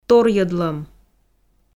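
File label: "торйӧдлӧм", Komi, female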